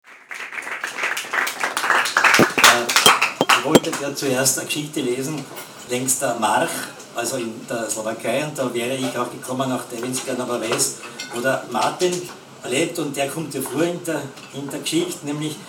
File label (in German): lesung; naschmarkt; geplaenkel